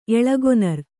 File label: "ಎಳಗೊನರ್", kannada